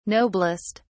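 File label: "noblest", British English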